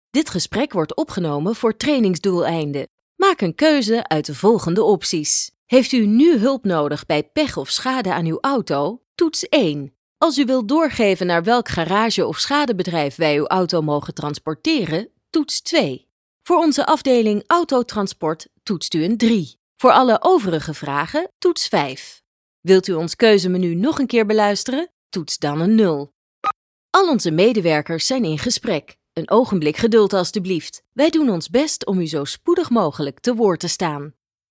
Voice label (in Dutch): Telefonie Vrouw